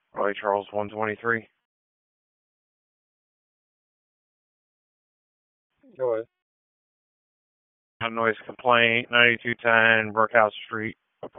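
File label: Police Southwest District Dispatch, p25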